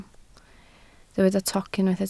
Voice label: "toc", Welsh